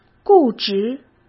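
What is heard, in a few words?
"固执", Mandarin Chinese; chinese-flashcards; hsk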